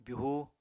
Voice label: "byuhu", Apatani

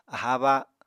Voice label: "aháa ba", Apatani

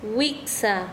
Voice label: Chanka, quechua; vientre

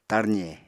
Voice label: "tarze", apatani

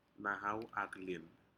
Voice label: "nahau aclin", Aneityum; turtles

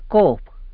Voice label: "cob", tlacolula; masa

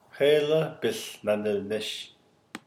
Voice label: navajo questions

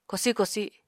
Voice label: "kosi kosi", Apatani

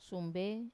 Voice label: "sumbe", machete, Wamesa

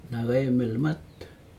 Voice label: nahcai milmat, sunup green place, Aneityum

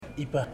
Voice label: "water", Ipili

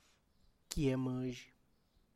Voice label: Above the table, Tlacochahuaya Zapotec

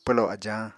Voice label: "pwlo ajañ", Apatani

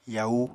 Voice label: "yau", Apatani

